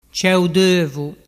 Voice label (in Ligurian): "ceudovu", dialettu